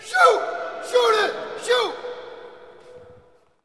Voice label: Hockey Male "Shoot"; Hockey Vocal Sounds

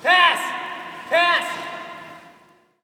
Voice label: Hockey Male "Pass"; Hockey Vocal Sounds

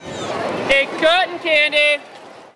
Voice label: Baseball Crowd Vendor; SFX Kit; Crowds

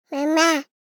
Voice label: BABIES TALK Boy 12 months "Mama"; Baby Boom

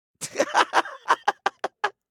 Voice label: Young Guy

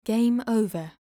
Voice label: "game Over", voice overs

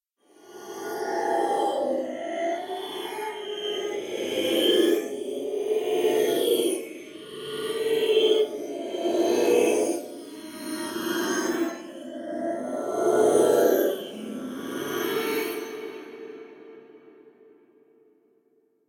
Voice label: Backward voices; Inquisitor 2

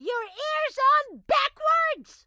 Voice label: grandma chopper annoyed; Blind Drive

